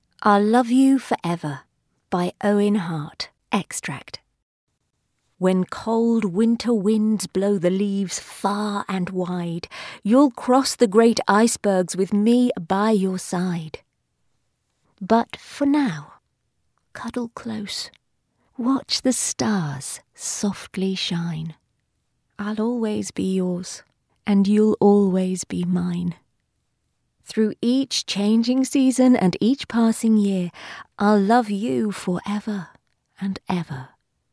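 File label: Children poem, New Zealand English; I'll love you forever; Owen hart extract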